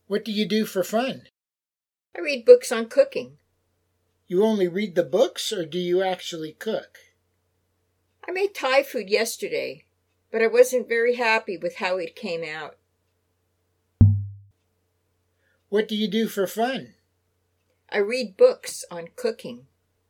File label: Natural Dialog; Lesson 1